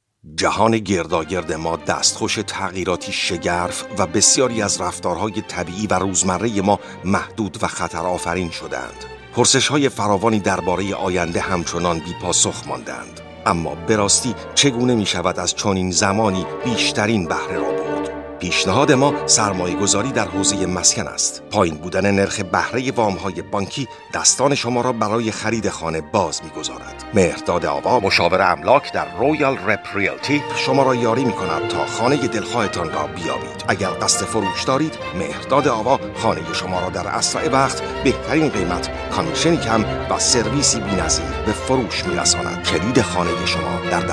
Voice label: Farsca Erkek Iran; seslendirme

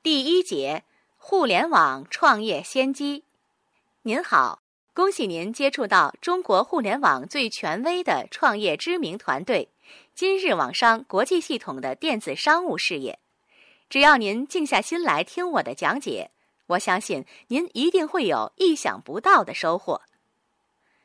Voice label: Cince Kadin Mandarin Cin Simplified; pekin seslendirme

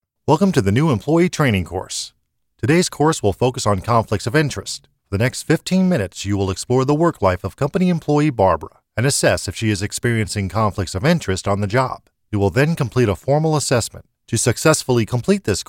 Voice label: Amerikan Ingilizcesi Erkek

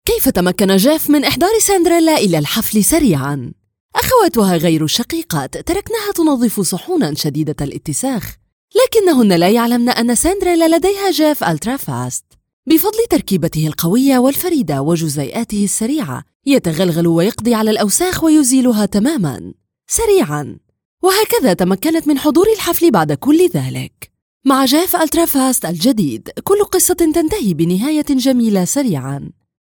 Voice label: Arapca Kadin Suudi Arapcasi, seslendirme; kadin sesler

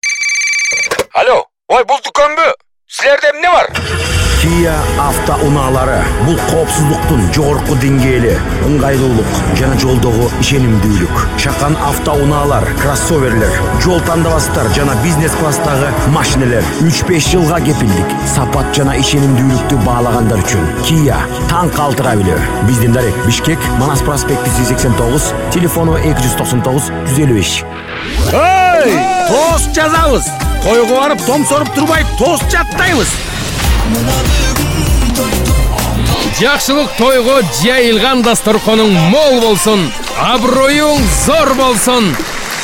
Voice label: Kirgizca Erkek

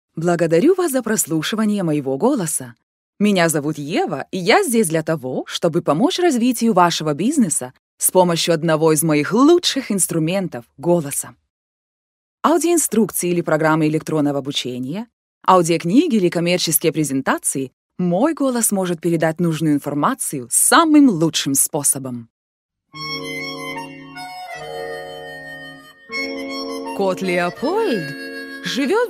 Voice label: Rusca Kadin, rusca seslendirme; kadin sesler

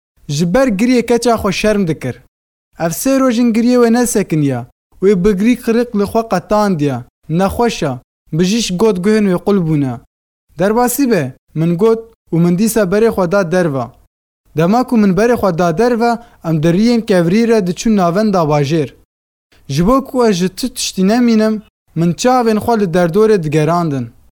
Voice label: Kurtce Erkek Kurmanci; kurtce seslendirme; erkek sesler

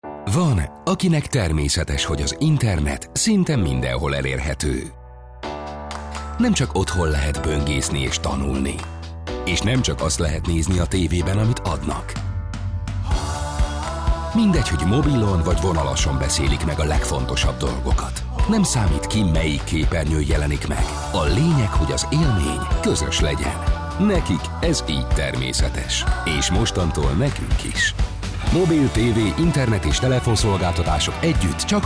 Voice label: Macarca Erkek, seslendirme; erkek sesler

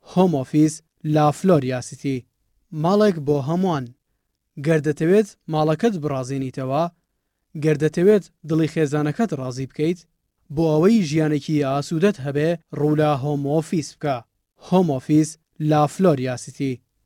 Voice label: Kurtce Erkek Soranice; kurtce seslendirme; erkek sesler

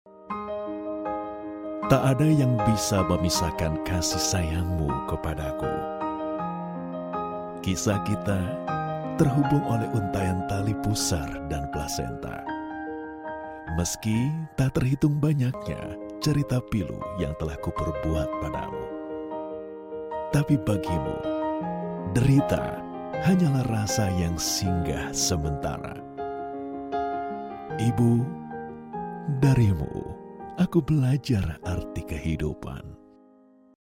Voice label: Endonezce Erkek

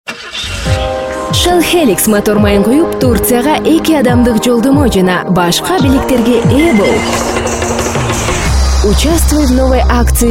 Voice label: Kirgizca Kadin

